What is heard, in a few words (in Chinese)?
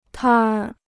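"摊儿" Chinese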